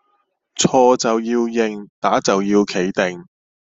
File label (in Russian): Гонконгский